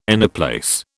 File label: "anyplace", British